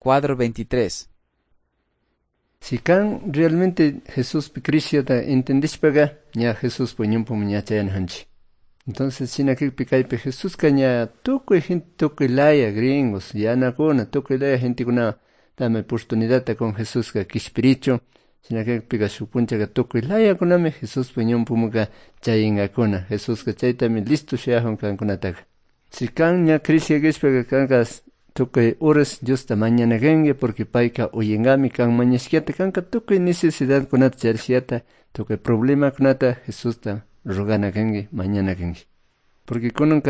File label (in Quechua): good news quichua cayambe